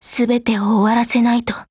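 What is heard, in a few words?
ATTACK Japanese; M82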